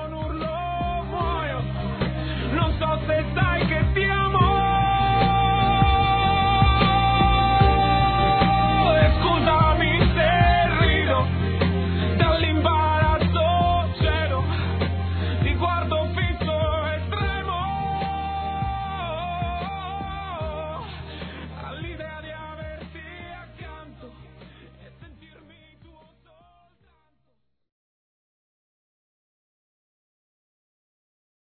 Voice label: Boa Tarde Romântica Feminino; Telemensagens; Sensuais

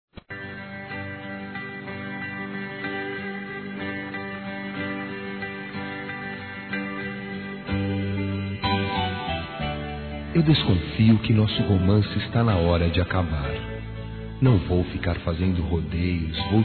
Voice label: ROMPIMENTO M

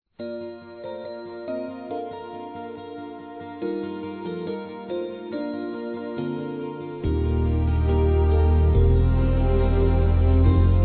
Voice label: NEUTRO, masc

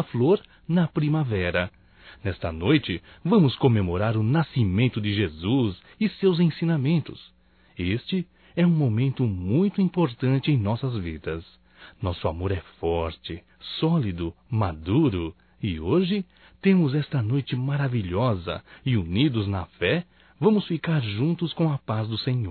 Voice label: NATAL ROMANTICO M; masc; Telemensagens 2017; Natal & Ano Novo

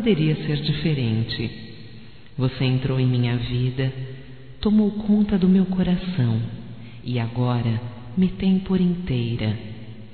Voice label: Surpresa de Aniversário Feminino; Aniversarios; Telemensagens; Telemensagens 2017

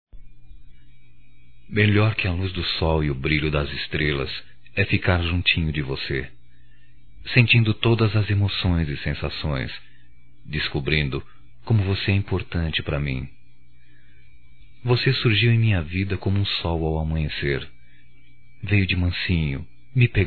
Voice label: ROMÂNTICA - M; Românticas

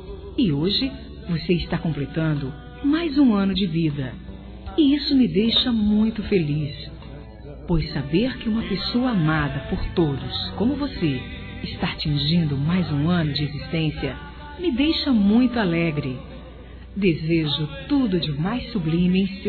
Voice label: aniversário geral f; Aniversários; Aniversários diversos; fem

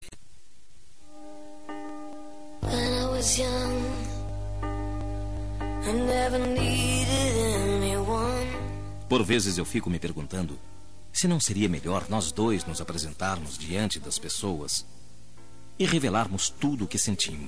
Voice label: ROM AMOR PROIBIDO ESCONDIDO MASC, Telemensagens

